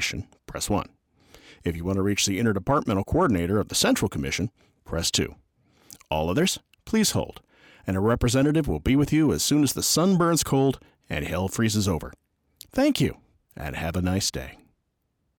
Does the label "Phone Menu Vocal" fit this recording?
Yes